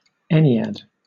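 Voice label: "ennead", British English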